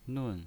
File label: "nun4", pinyin